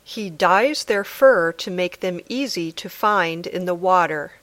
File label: Dictation